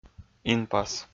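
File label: "инпас", Komi-Zyrian, male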